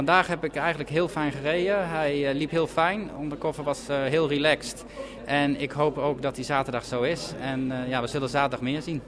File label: dutch; FEI; Reem Acra FEI World Cup Dressage 2012-2013 Final